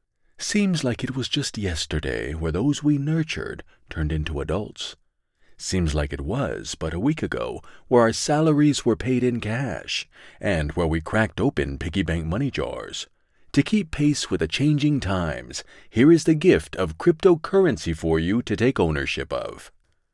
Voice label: female; 50+